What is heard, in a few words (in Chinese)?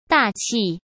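"大气", Mandarin Chinese; hsk; chinese flashcards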